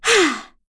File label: Yuria Vox Landing, voices heroes en; kingsraid-audio